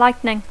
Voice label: "lightning", English; Dacco